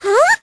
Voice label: vo confused; Crypt of the NecroDancer